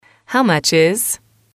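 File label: how much is, female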